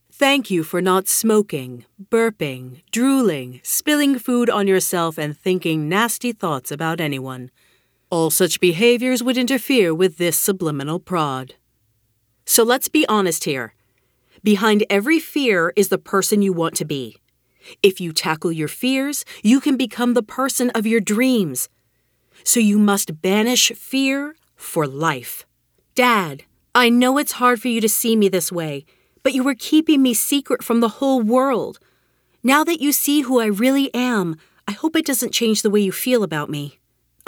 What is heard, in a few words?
voice over